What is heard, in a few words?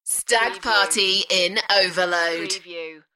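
British female Stag party in overload; beanstalk audio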